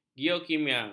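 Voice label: "geokimia", Malay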